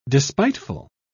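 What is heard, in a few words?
"despiteful", British English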